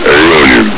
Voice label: "alien", combine soldier; hl2

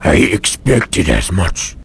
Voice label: answer, vort speech; hl2

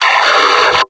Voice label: "hole", Combine soldier; hl2

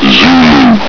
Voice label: "zulu", Combine soldier; hl2

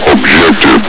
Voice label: "objective", Combine soldier; hl2

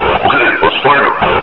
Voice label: lost long, combine soldier, near; hl2

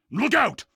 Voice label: lookout loud, combine soldier; hl2

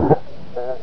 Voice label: die, combine soldier, far; hl2

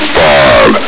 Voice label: "five", combine soldier; hl2

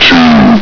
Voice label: "two", Combine soldier; hl2

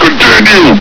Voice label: "continue!", combine soldier; hl2

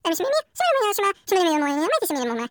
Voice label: cartoon voices male made up language; Soundholder

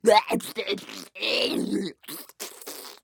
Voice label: FUN MONSTER silly talk gibberish

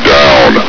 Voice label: "down", combine soldier; hl2

